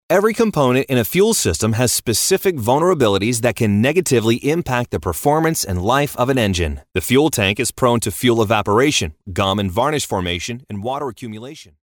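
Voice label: American English, male, elearning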